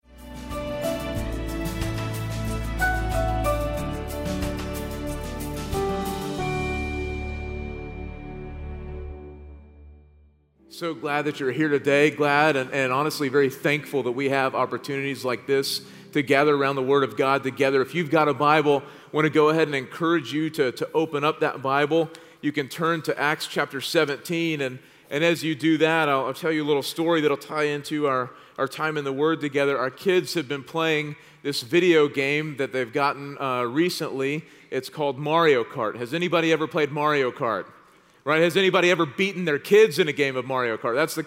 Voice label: Sermon English